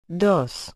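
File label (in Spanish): "dos", Spanish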